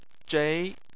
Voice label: "zhei2", Chinese